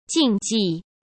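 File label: "竞技", Mandarin Chinese; chinese-flashcards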